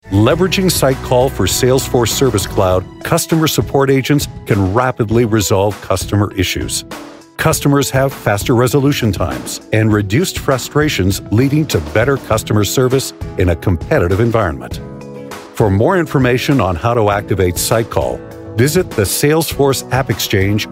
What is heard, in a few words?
Narration technology how to; Sightcall